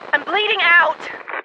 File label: Medic F; WarRock